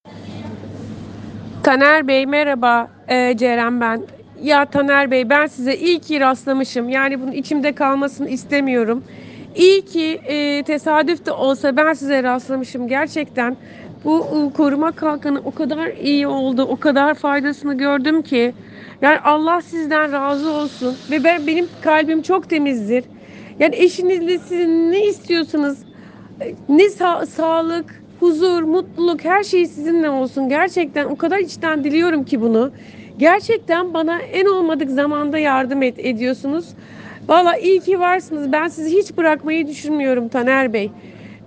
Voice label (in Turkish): sesli mesaj